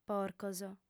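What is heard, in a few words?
"porcos", female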